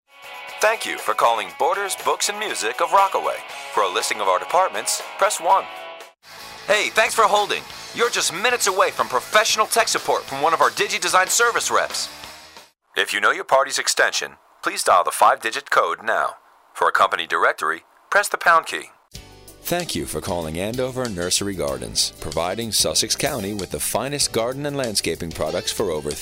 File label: Telephone Services